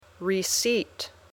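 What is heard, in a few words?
"receipt", English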